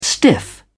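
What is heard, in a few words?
"stiff", British English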